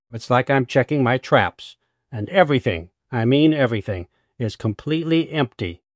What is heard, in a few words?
upset; fertig classic games